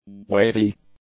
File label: "wavy", English; adjectives